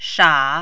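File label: "sha", Mandarin, third tone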